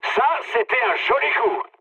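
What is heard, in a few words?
French player killed enemy mood high; War Thunder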